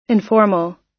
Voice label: "informal", us

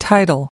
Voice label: "tidal", us

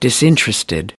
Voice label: "disinterested", us

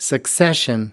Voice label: "succession", us

